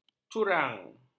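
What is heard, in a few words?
"curang", Malay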